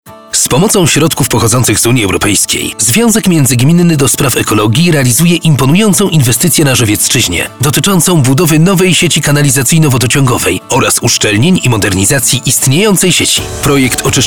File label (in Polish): spot radiowy